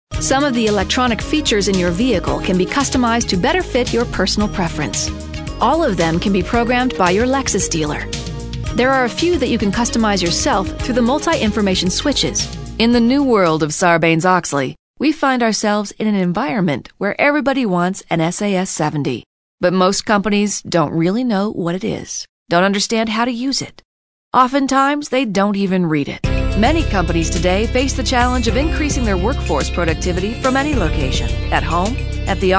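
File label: narration; Talent Management Group, Inc.; Murray, UT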